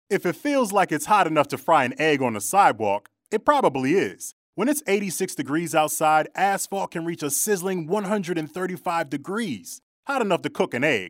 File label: radio psa; dogs on hot pavement